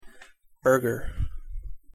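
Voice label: "burger", American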